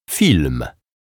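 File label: "film", Polish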